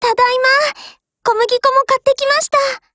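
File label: OPERATION OVER, Japanese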